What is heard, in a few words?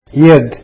hand, arabic